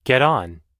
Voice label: "get on", US English